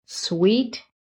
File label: "SWEET", american